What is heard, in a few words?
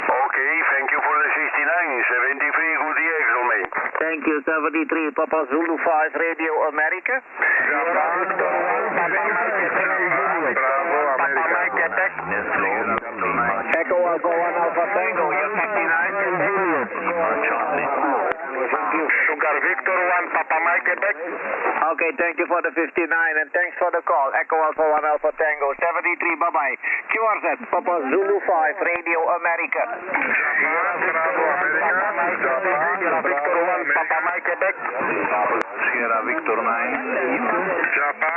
18mhz ssb